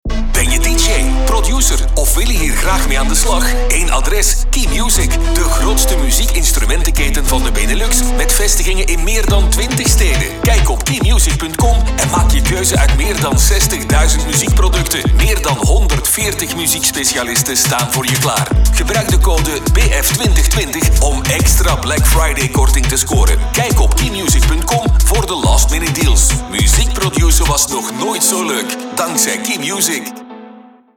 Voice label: Radio; Keymusic; Radio Stad